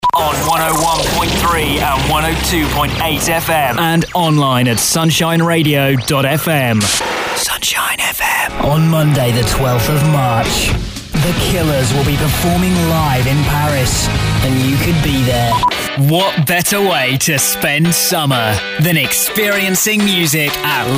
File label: promo